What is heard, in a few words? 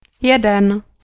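"jeden", Czech, female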